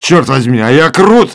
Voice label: humiliation, male